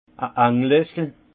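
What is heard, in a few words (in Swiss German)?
"anglessel", Haut Rhin; vin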